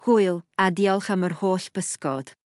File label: so long Welsh